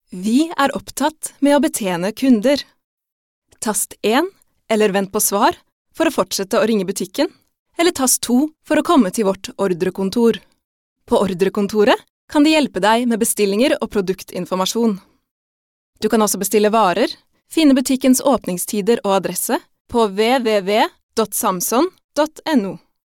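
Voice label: Norwegian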